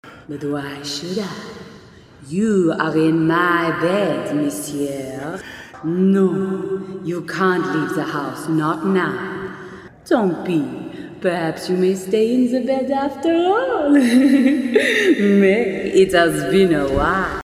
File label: French Ghost, french only; voquent